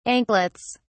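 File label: "anklets", British English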